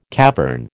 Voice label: "cavern", American

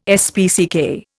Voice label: "SPCK", Indian

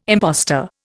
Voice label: "impostor", Indian

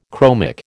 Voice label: "chromic", American

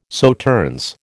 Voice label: "Sauternes", American

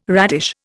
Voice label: "radish", Indian

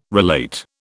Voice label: "relate", British

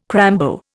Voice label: "crambo", Indian

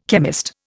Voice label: "chemist", Indian

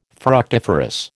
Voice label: "fructiferous", American

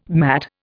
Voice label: "matt", Indian

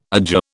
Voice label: "adjure", British

